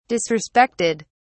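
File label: "disrespected", British English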